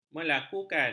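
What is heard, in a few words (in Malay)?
"melakukan", Malay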